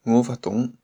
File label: no understand shanghainese